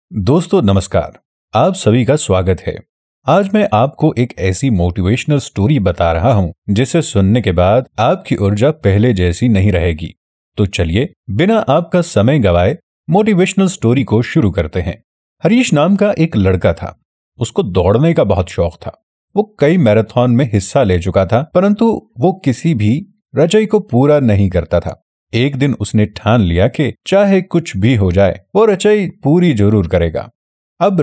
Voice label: Hindi Motivational; voiceover